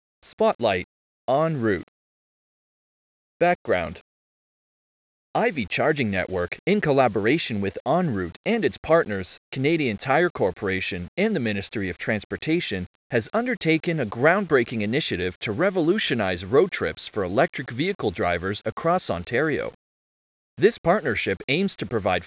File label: Blog Voiceover; Spotlight ONroute